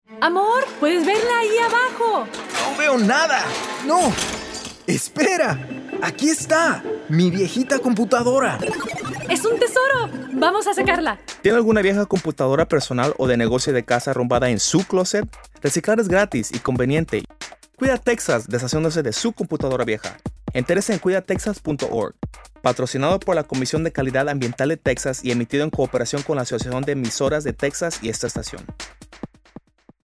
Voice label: computer recycle spanish; 2011 psa